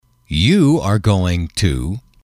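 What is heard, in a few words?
you are going to, male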